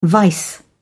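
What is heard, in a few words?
"vice", British English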